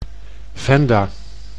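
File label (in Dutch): "Venda", Dutch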